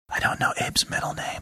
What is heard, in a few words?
whispering